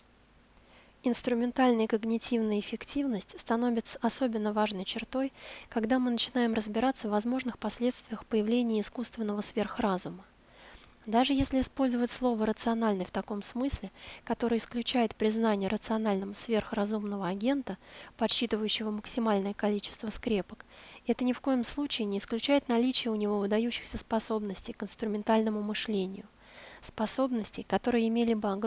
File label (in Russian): Деловой текс публицистика